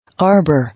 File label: "Arbour", English